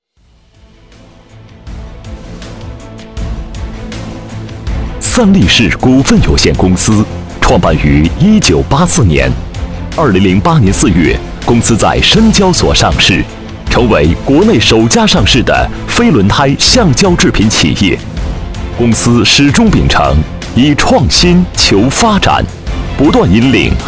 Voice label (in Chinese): 男 配音 样音, 国语男声配音; 靓仔专题; 三力士